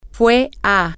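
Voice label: you went to, Spanish, female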